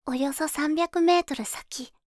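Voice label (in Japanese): およそ300メートル先, カーナビ案内音声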